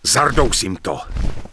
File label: Human Male Thank You, Human Vocal Male; wowpreklad; czwow